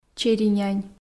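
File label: "черинянь", Komi, female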